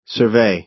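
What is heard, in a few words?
"survey", American English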